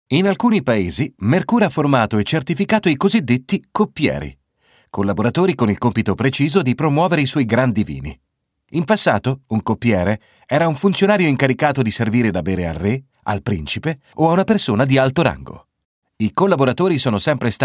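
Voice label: Italian e-learning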